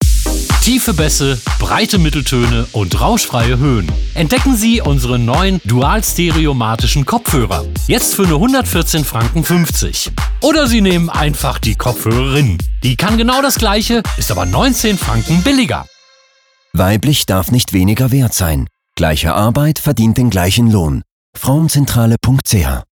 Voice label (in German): Radio; Frauenzentrale; Kopfhoererin